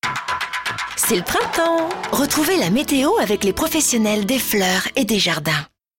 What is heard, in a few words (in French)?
printanière, voix off comédienne; Valhor; Billboard TV